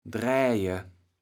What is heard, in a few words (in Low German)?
"draeje", kaevels platt